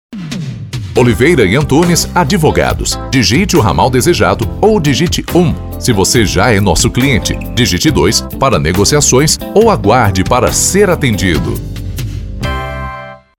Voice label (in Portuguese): ESPERA, espera telefonica; OLIVEIRA E ANTUNES ADVOGADOS